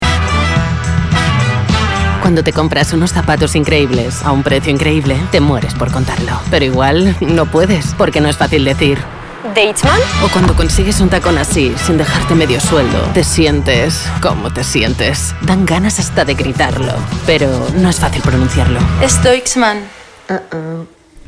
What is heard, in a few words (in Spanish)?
SPOT JUGUETONA DESCARADA